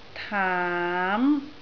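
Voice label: "taam2", Cantonese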